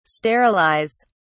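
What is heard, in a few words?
"sterilise", British English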